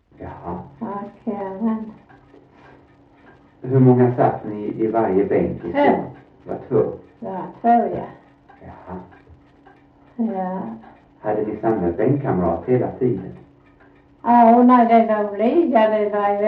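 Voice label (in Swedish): Sövde Skåne, Svenska sagor dialekter; Folkminnen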